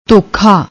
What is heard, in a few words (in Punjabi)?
"dhokha", Punjabi; ਧੋਖਾ